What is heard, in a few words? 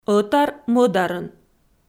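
"ӧтар-мӧдарын", Komi, female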